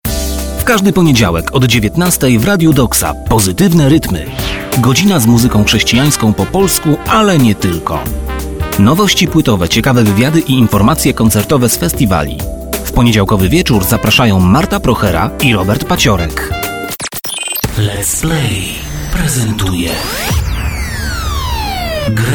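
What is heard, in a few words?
voice male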